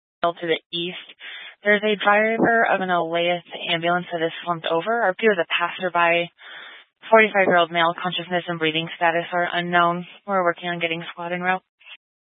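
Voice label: Sheriff Dispatch, Scanner audio recording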